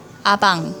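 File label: father, Jeju-eo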